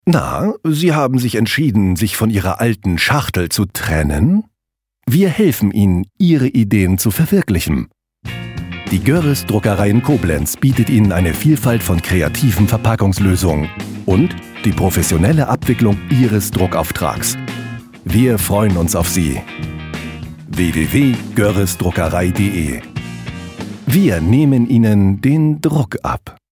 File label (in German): Radiospot abends; Goerres Druckerei